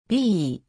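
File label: "び", Japanese; 五十音基礎聽解題目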